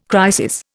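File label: "crisis", Indian English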